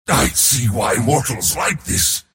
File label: last hit pain; Mars